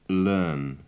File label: "learn", English